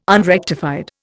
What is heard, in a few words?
"unrectified", Indian